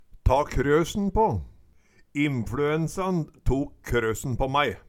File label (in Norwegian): ta krøsen på, Numedalsmål